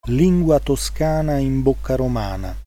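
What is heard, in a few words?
proverb, Italian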